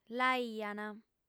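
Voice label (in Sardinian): "l'aiant", Sardinian, female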